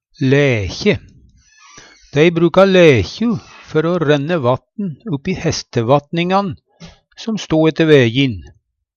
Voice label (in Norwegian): laekje, Numedalsmål